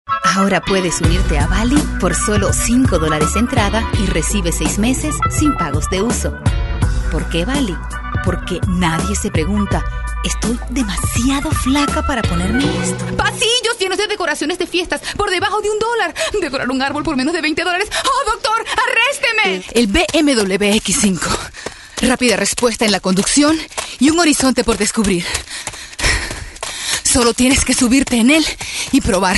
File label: Spanish, female